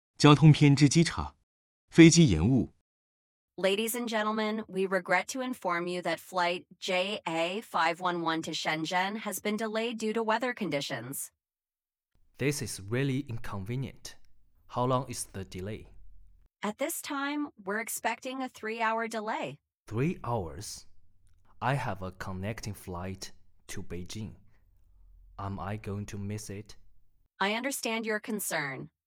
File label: flight delay, english corner transportation